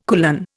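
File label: "kulan", Indian English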